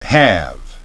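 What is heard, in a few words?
"have", English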